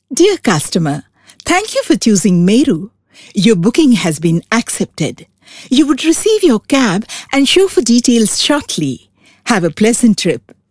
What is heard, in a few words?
English, English Female